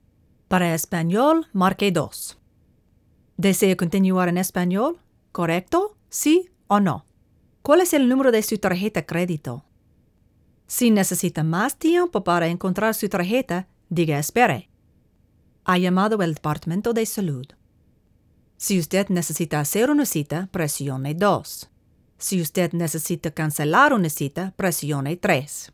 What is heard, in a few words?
Phone Menu Spanish